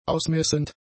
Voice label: "ausmessend", German; adjectives